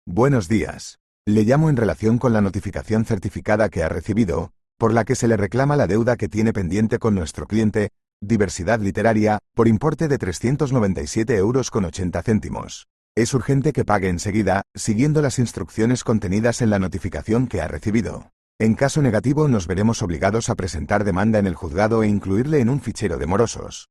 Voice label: audio call